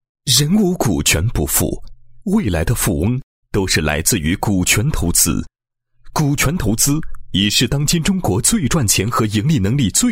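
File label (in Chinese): 男 人物 优秀青年创业家宣传片解说词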